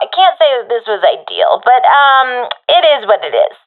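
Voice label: round lost, professional female; vo; Counter Strike Model Pack; CS:GO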